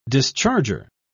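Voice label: "discharger", English, British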